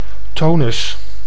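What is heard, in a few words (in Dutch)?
"tonus", Dutch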